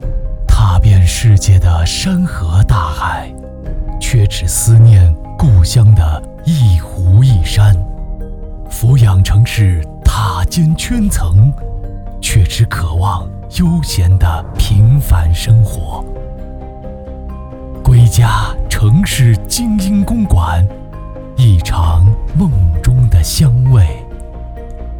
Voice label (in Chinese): 地产宣传录音